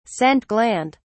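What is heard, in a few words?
"scent gland", British English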